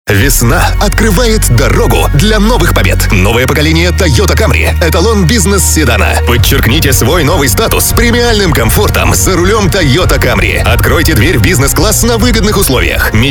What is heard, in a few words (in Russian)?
muzhskie golosa, diktory